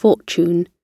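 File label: "fortune", British English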